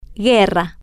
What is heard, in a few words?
"guerra", Spanish